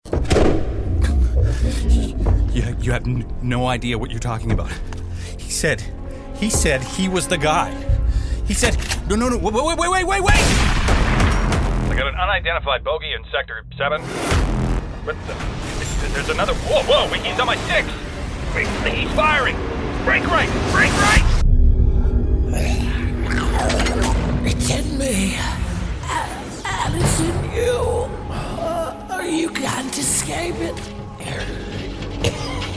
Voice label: english american video games